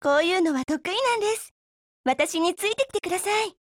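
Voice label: FORMATION, Japanese; RexZero1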